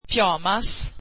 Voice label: swedish pajamas

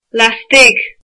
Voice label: inside, irish

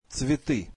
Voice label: russian flowers

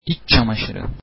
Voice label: underwear, turkish

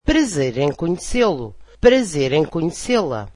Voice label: portuguese nice to meet you

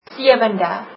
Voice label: seventh, afrikaans

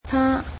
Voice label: "him", Cantonese

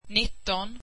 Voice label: swedish nineteen

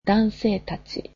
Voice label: "men", japanese

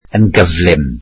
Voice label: welsh quickly

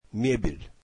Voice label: russian furniture

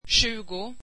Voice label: swedish twenty

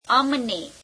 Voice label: gujarati us; અમને; amene

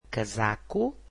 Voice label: "coat", portuguese

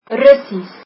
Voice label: Russian, afrikaans

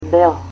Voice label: "white", Macedonian

